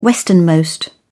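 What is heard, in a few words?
"westernmost", British English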